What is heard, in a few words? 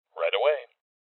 Confirmation Male; rebel cops 2019; Voices